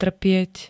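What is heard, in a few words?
"trpieť", Slovak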